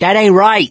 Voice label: reaction negative, survivor voice mechanic; source engine; left 4 dead 2